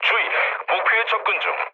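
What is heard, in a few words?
Korean near waypoint mood low; War Thunder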